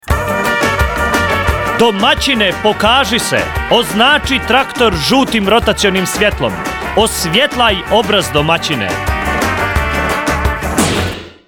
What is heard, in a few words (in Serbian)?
RADIO DžINGL; Osvjetlaj obraz domacine